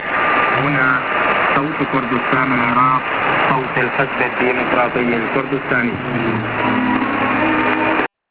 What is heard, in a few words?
Voice Iraqi Kurdistan, clandestine